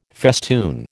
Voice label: "festoon", American